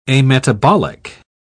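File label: "ametabolic", English, British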